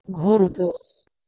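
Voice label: "ghordhos", Proto-Indo-European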